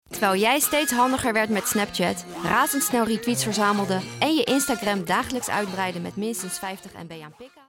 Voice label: Commercial, voice